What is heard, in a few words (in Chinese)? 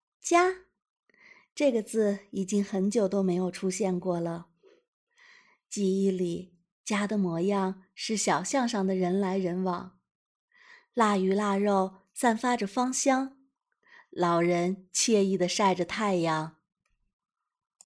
宣传片配音; 树袋熊